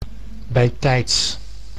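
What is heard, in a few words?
"bijtijds", Dutch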